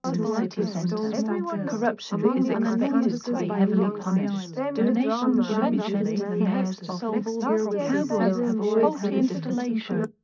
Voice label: female mix; SiSEC10; underdetermined